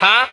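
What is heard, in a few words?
"huh", vocal sample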